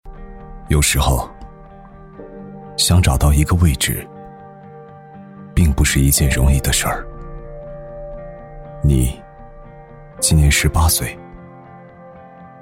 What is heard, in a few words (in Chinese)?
男 旁白走心 低沉走心诉说, 厚重有力 质感磁性; 我眼里的光